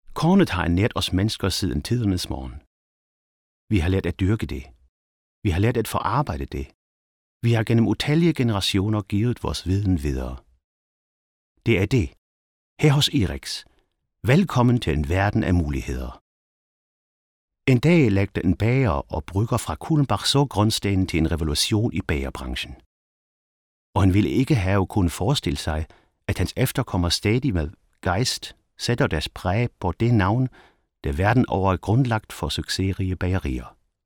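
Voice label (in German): Kommentar dänisch; LOFT VOICES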